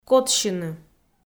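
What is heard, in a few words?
"котсьыны", Komi, female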